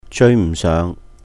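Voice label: "jèui m4 séuhng", Cantonese; fall behind; 追唔上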